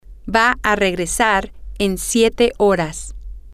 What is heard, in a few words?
he she will return in seven hours, spanish, F